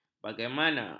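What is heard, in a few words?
"bagaimana", Malay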